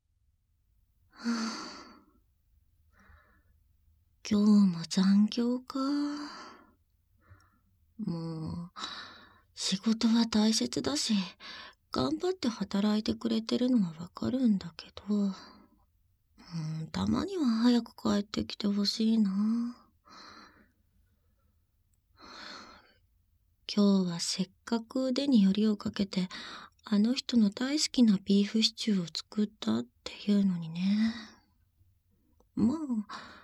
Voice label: voice Only, ASMR